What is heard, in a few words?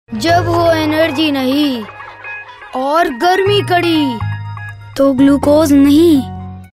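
Telugu, male voice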